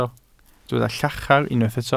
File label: "llachar", Welsh